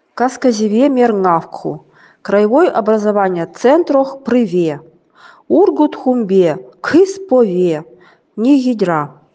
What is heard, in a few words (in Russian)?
Нивхский